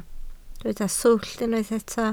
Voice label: "swllt", Welsh

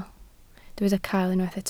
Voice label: "cael", Welsh

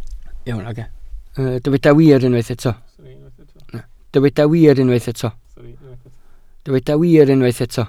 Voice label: "wir" Welsh

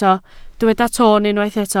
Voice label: "ton" Welsh

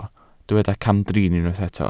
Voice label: "camdrin", Welsh

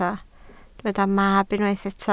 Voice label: "mab", Welsh

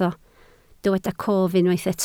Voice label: "cof", Welsh

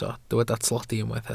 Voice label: "tlodi", Welsh

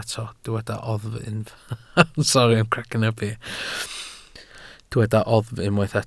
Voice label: "oddf" Welsh

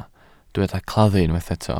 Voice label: "claddu", Welsh

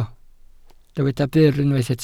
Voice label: "byr", Welsh

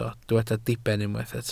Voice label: "diben", Welsh

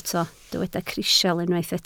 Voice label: "crisial", Welsh

